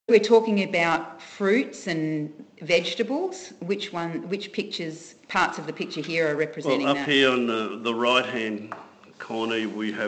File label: narration